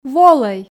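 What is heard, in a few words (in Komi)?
"волӧй", Komi, female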